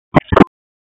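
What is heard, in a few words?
Police Spec Ops Tac, radio; Raleigh; wake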